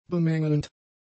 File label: "bemängelnd", German; adjectives